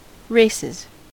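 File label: "races", US English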